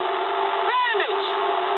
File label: eng enemy detected mood high, speech eng; War Thunder